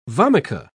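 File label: "vomica", British English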